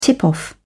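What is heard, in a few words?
"tip off", British English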